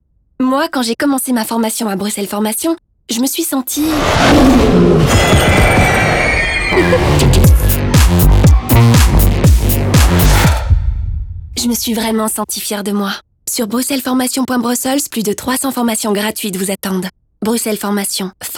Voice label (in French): femme radio, formation; bruxelles